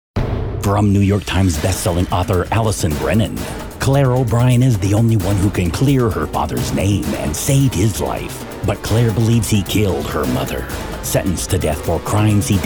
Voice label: TRAILER